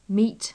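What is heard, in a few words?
"meet", British English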